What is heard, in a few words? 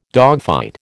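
"dogfight", American English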